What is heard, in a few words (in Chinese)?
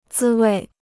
"滋味", Chinese